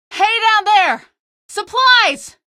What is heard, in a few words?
dropper, female; hl2; Source engine